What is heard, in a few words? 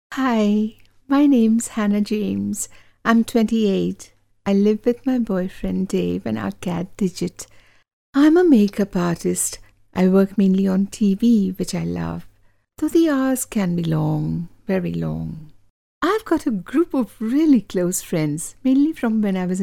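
English Indian Female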